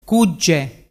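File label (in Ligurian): "cugge", Ligurian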